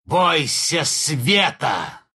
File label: attack, ru